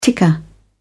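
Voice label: "ticker", British English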